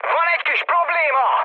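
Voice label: Hungarian aircraft damaged mood high, speech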